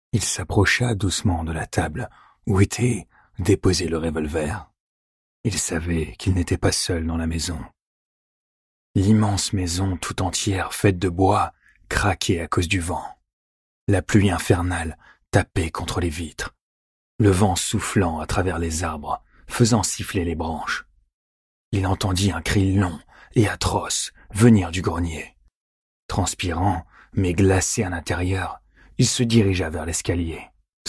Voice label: french audiobooks

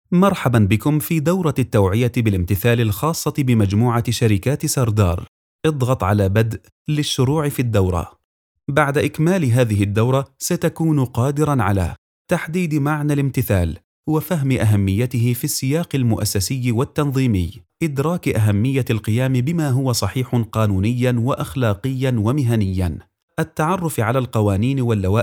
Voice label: arabic audiobooks

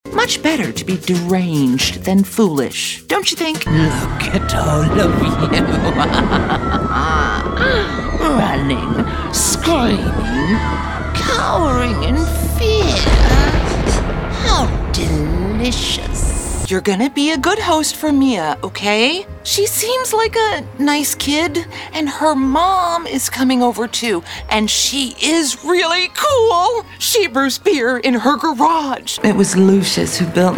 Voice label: english american animation

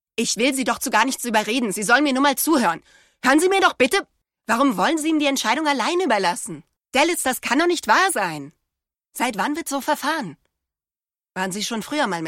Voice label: german video games

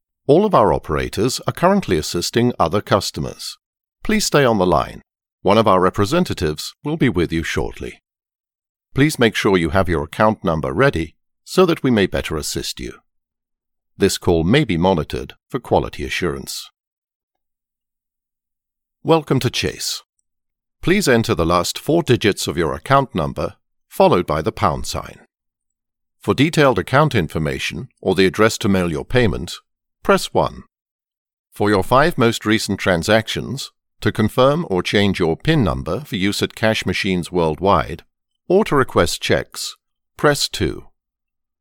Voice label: english british ivr

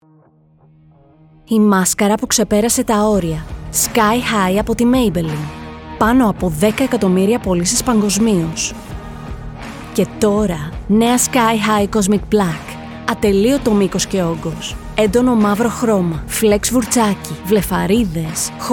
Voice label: Greek others

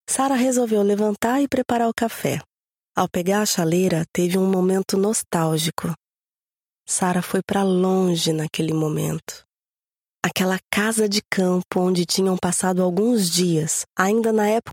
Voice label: portuguese brazilian narration

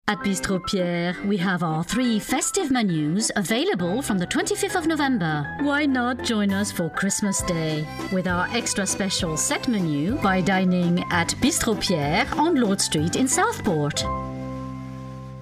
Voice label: english promos

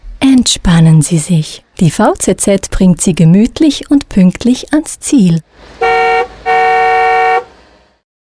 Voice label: German promos

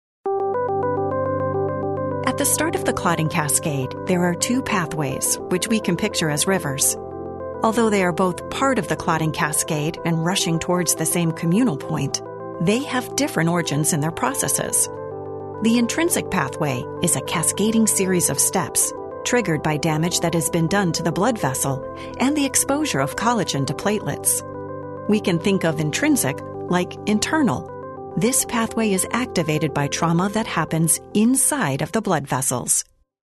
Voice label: english american medical narration; Timeless, sophisticated

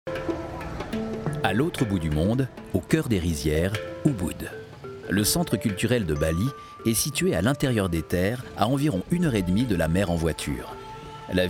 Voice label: french switzerland documentaries; Swiss French; voice actor

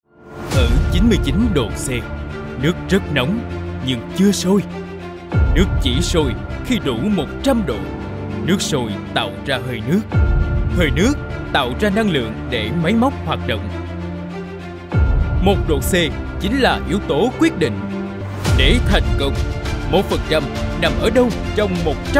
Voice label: Vietnamese corporate videos

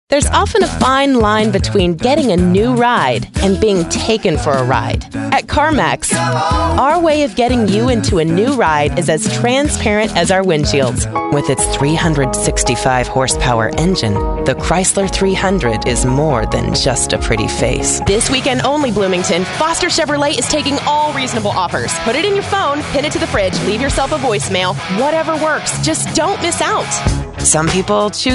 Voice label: english american automotive

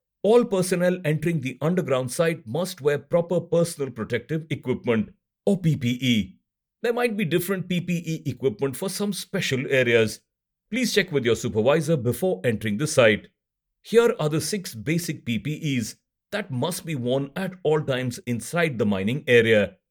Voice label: english indian tv shows

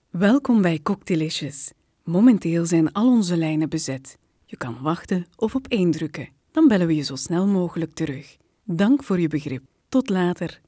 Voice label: flemish ivr

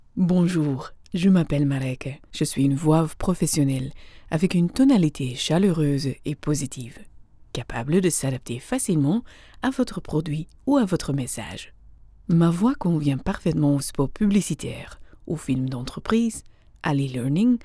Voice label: french commercial

